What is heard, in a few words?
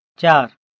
"4", Gujarati